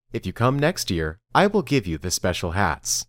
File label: Dictation